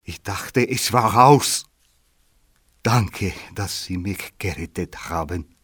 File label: thank, civ male; Soldier Of Fortune II Double Helix; XBOX